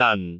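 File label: "dan4", Cantonese; google B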